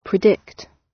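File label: "predict", British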